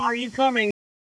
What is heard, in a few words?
Voice Acting, es, M